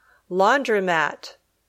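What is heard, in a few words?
"laundromat", English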